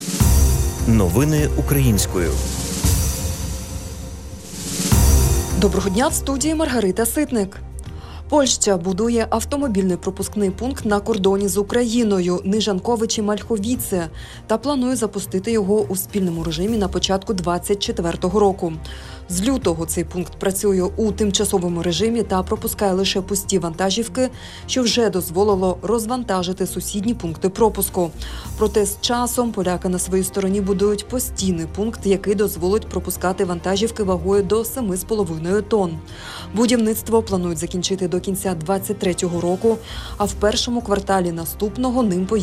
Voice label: Ukrainian Evening News